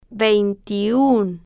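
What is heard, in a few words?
"vein-tiun", Spanish, female, slow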